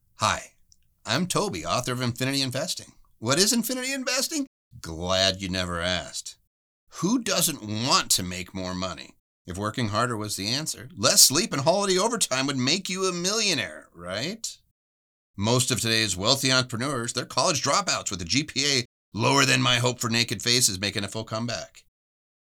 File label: Book Promo Script